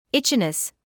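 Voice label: "itchiness", British English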